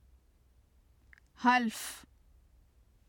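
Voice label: "half", Urdu; حلف; [həlf] (half); 宣誓（男）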